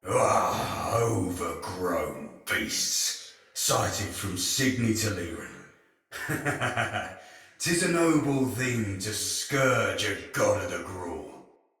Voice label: Patrol Spoken Soldier Male; Battle; Barks; Dialog; Sinecure Soldier Male B